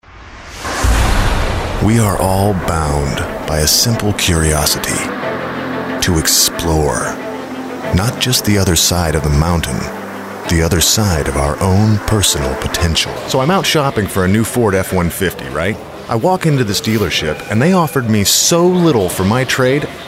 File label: commercial